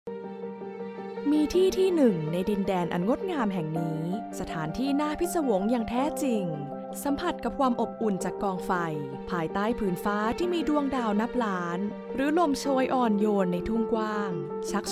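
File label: Thai commercial; GoLocalise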